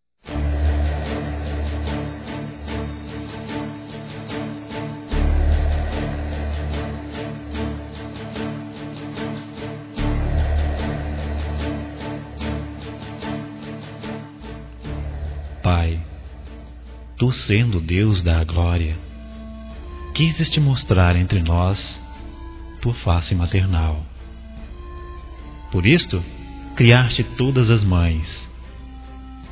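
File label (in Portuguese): homenagem a mãe, masculino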